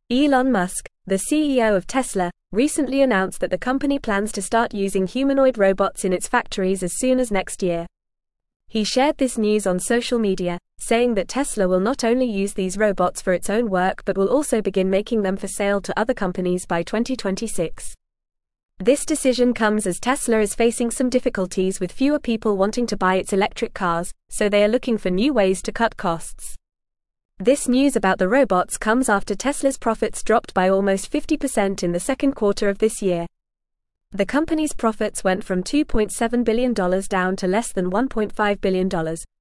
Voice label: English Upper Intermediate FAST Reading; Tesla to Produce Humanoid Robots for Factory Use; English Newsroom